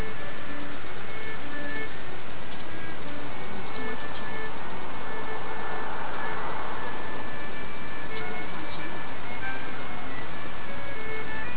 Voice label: 3 voices throughout clip